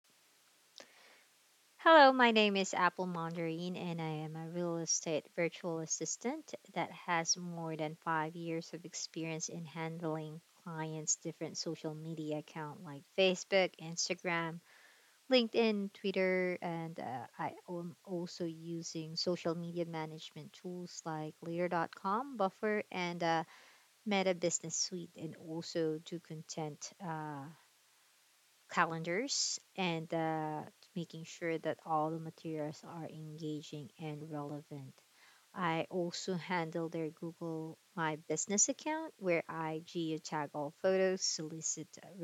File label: Voice Recording, Self Introduction